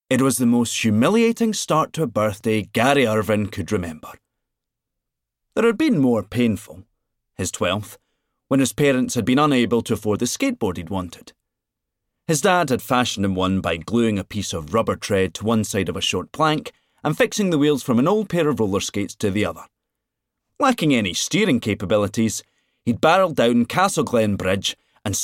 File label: audiobook extract; The Amateurs by John Niven